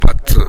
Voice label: "battu", minamiyamagata

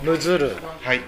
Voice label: "muzuru", minamiyamagata

